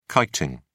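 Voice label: "kiting", British English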